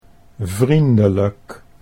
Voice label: "vriendelijk", Dutch; 'friendly, kind'